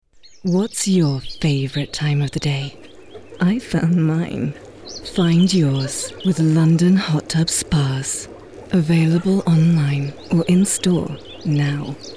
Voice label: Commercial Voice